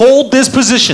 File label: voice hold